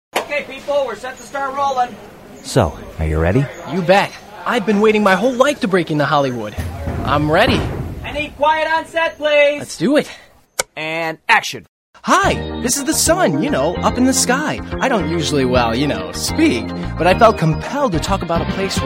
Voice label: Men Commercial